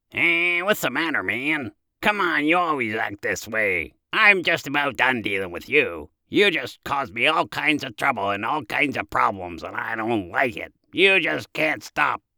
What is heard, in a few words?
Cartoon Character Grumpy Like